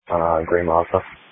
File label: Sheriff Dispatch